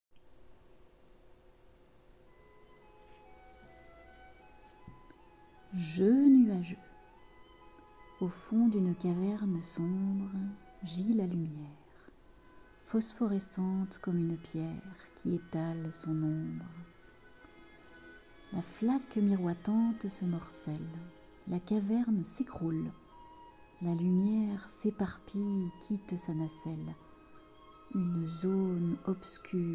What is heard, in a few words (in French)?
audio poetique; Jeux Nuageux